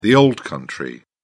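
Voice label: "old country", British English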